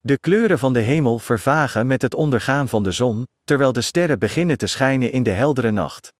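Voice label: Dutch; iOS Sounds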